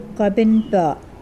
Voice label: Mokilese elbow